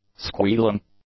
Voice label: "squealing", english; nouns

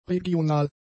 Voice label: "regional", German; adjectives

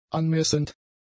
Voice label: "anmessend", German; adjectives

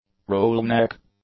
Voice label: "roll neck", English; nouns

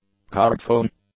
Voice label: "cardphone", English

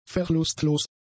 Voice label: "verlustlos", German; adjectives

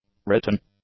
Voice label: "written", english; adjectives

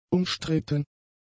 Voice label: "umstritten", German; adjectives

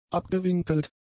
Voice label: "abgewinkelt", German; adjectives

